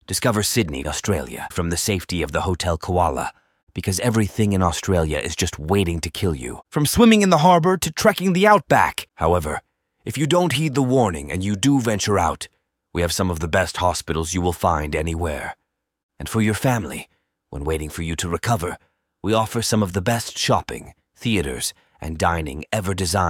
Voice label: male, fake sponsors; tour sydney